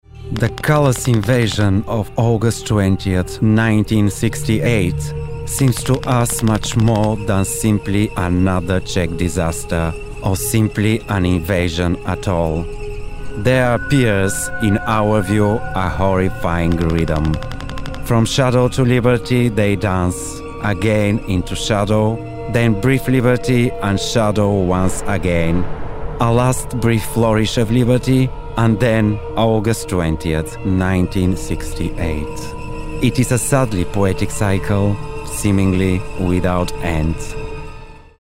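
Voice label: Bulgarian English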